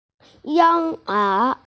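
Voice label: "yinger", Mandarin Chinese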